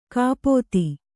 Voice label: "ಕಪಿತೆ", Kannada

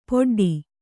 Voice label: "ಪೊಡ್ಡಿ", Kannada; poḍḍi; noun; a promiscuous woman; a whore; a harlot.; a mean, disreputable woman.

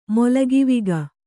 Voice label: "ಮೊಲಗಿವಿಗ", kannada

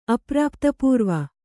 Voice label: "ಅಪ್ರಾಪ್ತಪೂರ್ವ", kannada

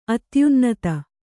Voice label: "ಅತ್ಯುನ್ನತ", Kannada

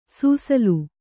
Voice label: "ಸುಸಿಲು", Kannada

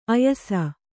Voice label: "ಆಯಾಸ", Kannada

